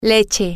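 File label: milk, Spanish, female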